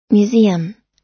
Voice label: "museum", us